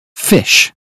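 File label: "fish", British phonetics; 英语音标发音（剑桥版）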